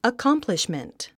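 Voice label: "accomplishment", English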